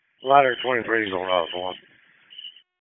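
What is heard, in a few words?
FIRE Operations, p25; FIRE OPS 1; wake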